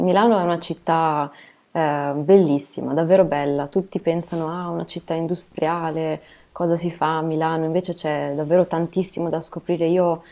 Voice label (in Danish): Italiensk